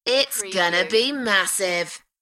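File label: British female Its gonna be massive; beanstalk audio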